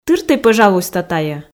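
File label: "тыртӧй пӧжалуйста тайӧ", Komi, female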